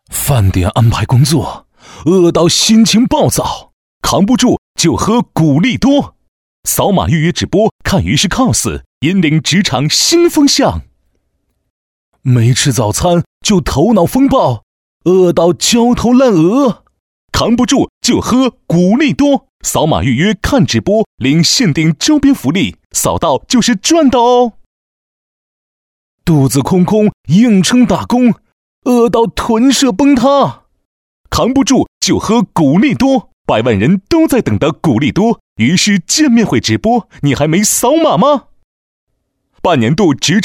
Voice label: 男 广告

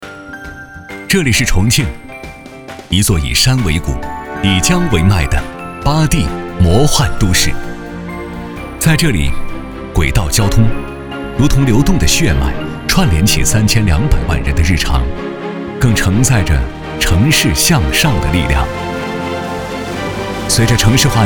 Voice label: 男349 宣传片; 重庆轨道集团资源

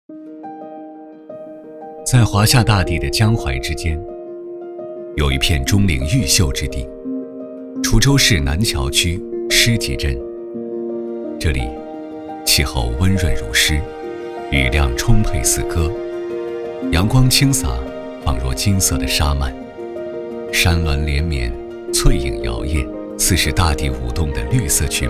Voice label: 男 宣传片; 金鹰茶叶

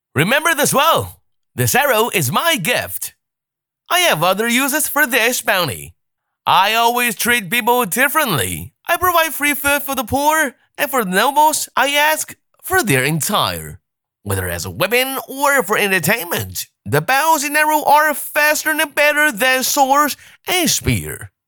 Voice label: 男 英文角色; 罗宾汉

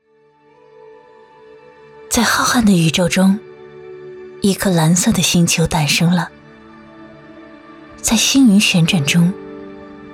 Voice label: 女 纪录片; 浩瀚的宇宙

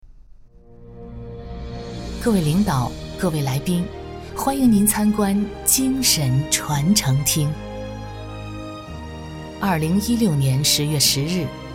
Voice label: 女 专题

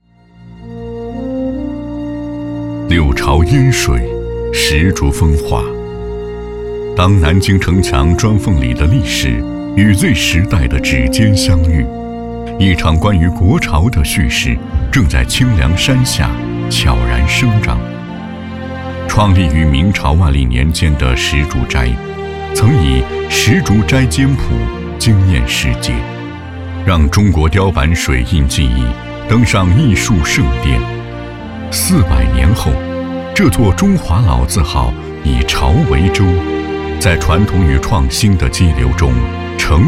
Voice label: 男 纪录片; 老字号; 潮起来

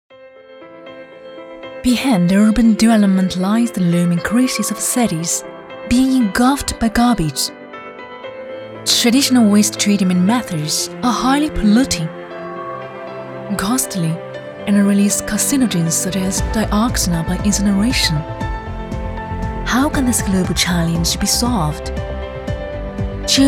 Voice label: 女 英文宣传片; 中垠绿能

